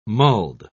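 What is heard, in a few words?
"mulled", British English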